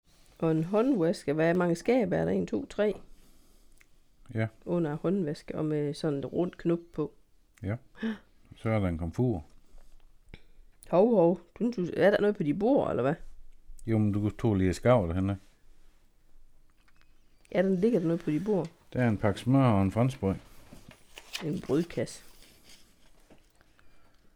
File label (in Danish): SKERN dialekt mk aar